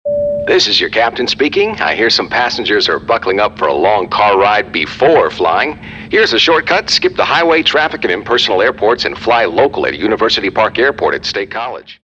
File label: deadpan